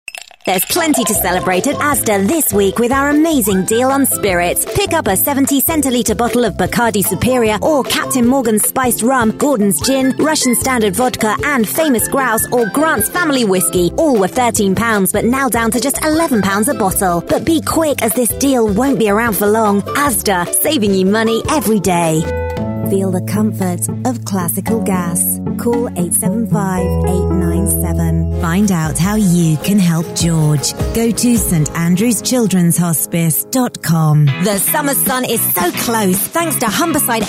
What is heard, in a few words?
commercial showreel